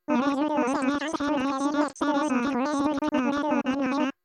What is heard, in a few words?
Male Voice